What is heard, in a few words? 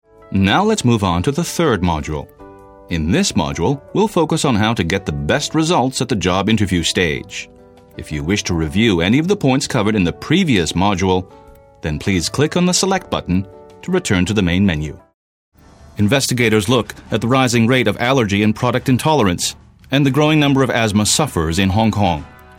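American, narration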